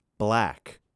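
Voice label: "Black", male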